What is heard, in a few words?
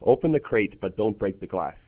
Male IS96